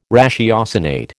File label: "ratiocinate", American